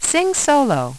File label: Sing Solo, Voice, Prompts; Opcode Systems